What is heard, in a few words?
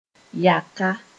"yaka", Moore